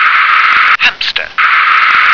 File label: "hamster", English